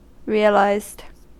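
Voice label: "realised", US English; pronunciations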